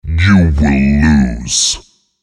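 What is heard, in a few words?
taunt, English; Malphite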